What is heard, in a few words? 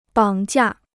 "绑架", Chinese